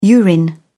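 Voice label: "urine", British English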